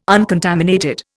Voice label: "uncontaminated", Indian

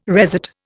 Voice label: "resat", Indian

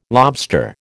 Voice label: "lobster", American

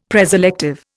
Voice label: "preselective", Indian; Dictionary

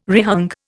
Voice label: "rehung", Indian

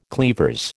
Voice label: "cleavers", American

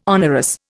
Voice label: "onerous", Indian

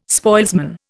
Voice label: "spoilsman", Indian

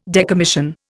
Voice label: "decommission", Indian

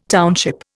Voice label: "township", Indian

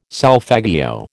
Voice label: "solfeggio", American

